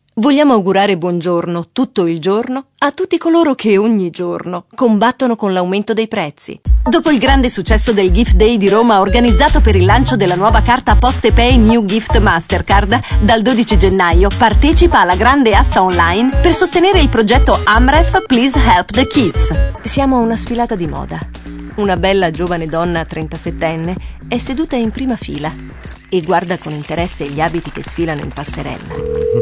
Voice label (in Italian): Promo Voce